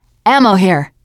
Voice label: spot ammo, teen girl; player; survivor; voice

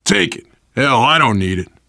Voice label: alert give item, survivor voice biker

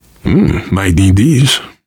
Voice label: take pills, voice, biker; Left 4 Dead 2; left4dead2 dlc3; survivor

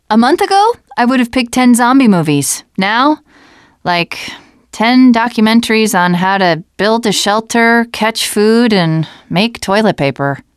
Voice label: second saferoom, teengirl, voice

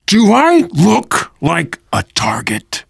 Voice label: friendly fire, voice, biker; Left 4 Dead 2; left4dead2 dlc3; survivor

